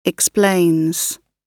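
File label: "explains", British English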